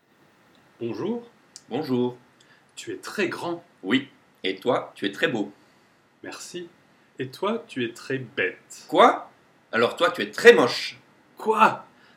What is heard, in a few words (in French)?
dialoque deux hommes discutent, French dialogues; masculine and feminine adjectives